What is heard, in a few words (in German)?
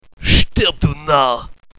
Narr